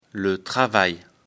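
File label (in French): "travail", French; afrikalan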